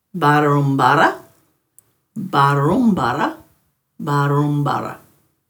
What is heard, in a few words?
"barrumbarra", Dhurga